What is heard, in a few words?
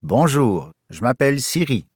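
Canadian French; iOS Sounds; pierre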